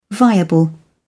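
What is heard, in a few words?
"viable", British English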